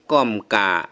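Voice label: "ၵွမ်ႉၵႃႈ", Shan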